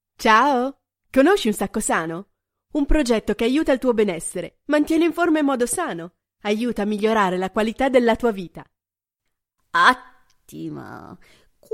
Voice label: italian commercial

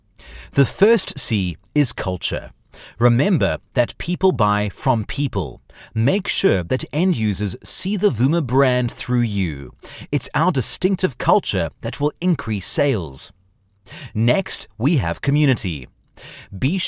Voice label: english international e-learning

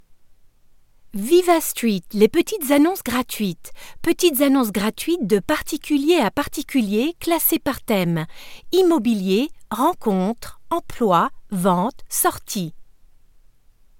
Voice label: french promos

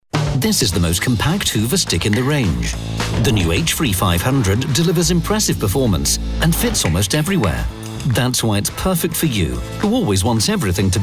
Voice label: english british commercial, educated

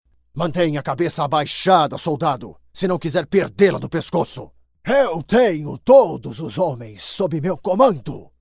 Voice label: Portuguese Brazilian video games; brazilian portuguese voice actors

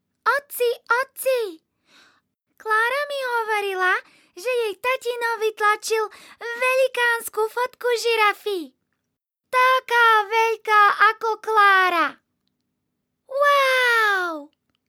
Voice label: slovak; slovak voice over talent

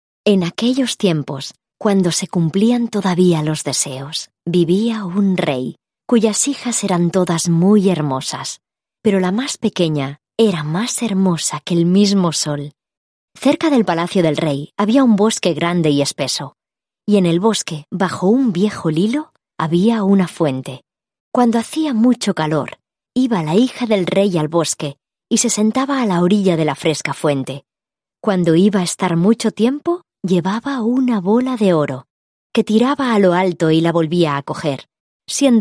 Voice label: spanish audiobooks

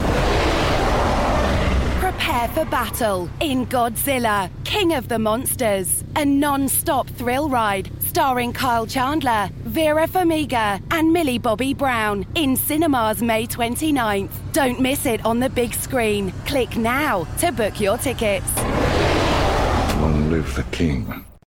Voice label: english british movie trailers

